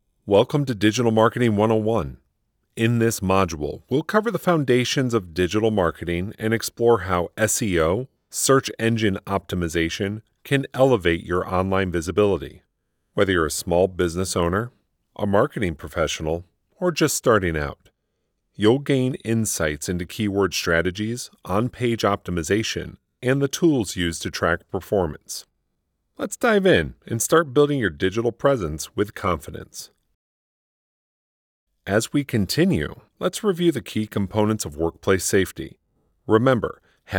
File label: eLearning